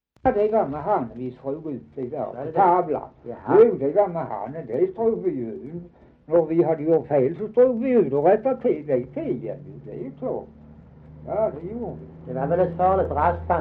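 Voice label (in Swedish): Dalby Skåne, dialekter; Folkminnen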